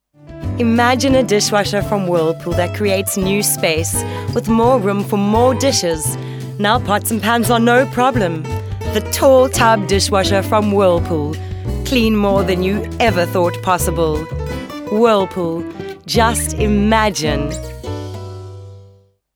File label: Natural